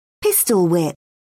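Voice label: "pistol-whip", British English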